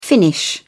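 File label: "finnish", British English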